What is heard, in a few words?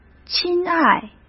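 "亲爱", Mandarin; chinese flashcards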